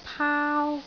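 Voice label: "paau1", Cantonese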